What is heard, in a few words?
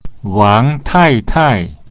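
"wang2tai4tai4", Mandarin Chinese; Mrs. Wang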